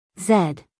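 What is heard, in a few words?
"zed", American English, female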